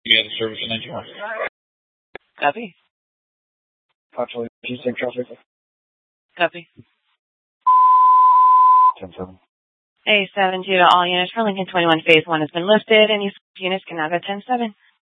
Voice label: Mesa PD Fiesta Dispatch; TOPAZ Regional Wireless Cooperative (TRWC); Mesa Simulcast